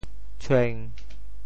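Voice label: "tshueng1", Teochew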